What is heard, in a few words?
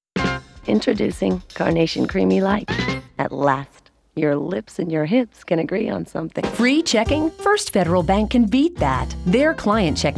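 commercial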